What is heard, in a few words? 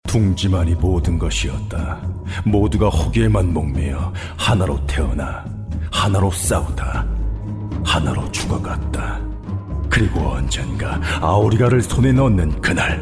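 Korean, male, narration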